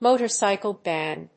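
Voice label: "motorcycle gang", English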